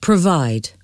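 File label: "provide", English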